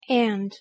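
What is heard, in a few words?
"and", English pronunciation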